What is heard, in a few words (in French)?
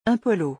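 "un polo", French; vocabulaire; le lexique des vacances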